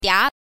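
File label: "dia", Mandarin Chinese, second tone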